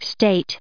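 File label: "state", US English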